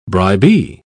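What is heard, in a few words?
"bribee", English, British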